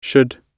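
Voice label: "should", British English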